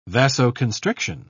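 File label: "vasoconstriction", British English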